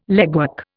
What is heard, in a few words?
"legwork", Indian